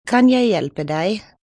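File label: How Can I Help Danish, Telephone operator, Danish, female; VoiceBox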